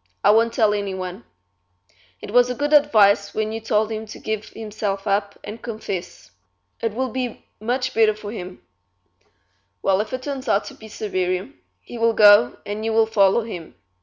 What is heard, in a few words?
woman; intone